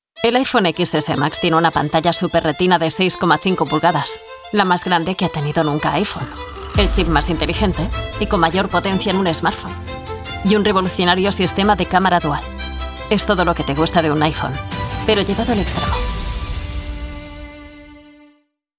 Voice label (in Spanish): spanish voz natural, Spanisch - Kastilisch